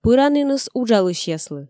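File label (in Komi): "пыраніныс уджалысьяслы", Komi, female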